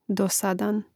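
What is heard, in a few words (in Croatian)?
"dosadan", Hrvatski; mreznik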